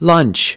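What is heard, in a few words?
"lunch", English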